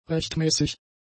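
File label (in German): "rechtmäßig", German; adjectives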